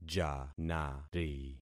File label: "janari", concat syllables; Affixing experiment